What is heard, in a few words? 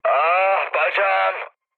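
Turkish damaged pilot, mood med, speech; War Thunder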